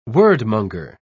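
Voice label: "wordmonger", British English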